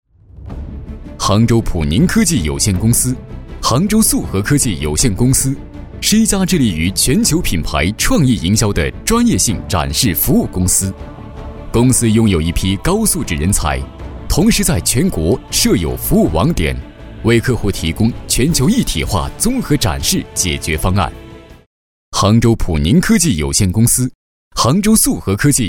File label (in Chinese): 男, 专题, 带干音; 杭州普宁公司